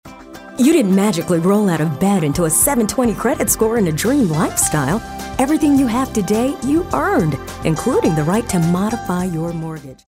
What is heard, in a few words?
friendly